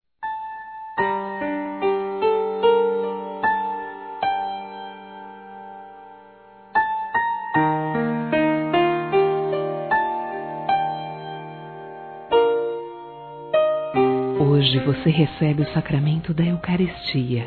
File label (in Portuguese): Primeira Comunhão, Gospel; Telemensagens; fem; Telemensagens 2017